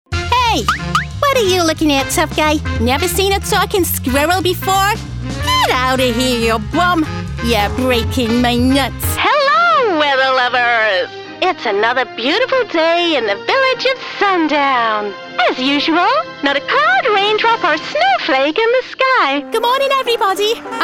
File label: Animation